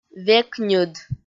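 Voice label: "векньыд", Komi, female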